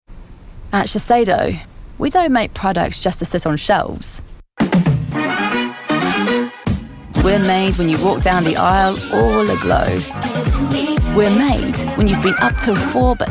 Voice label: UK Cockney Accent